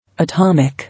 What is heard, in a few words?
"Atomic", English